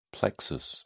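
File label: "plexus", British English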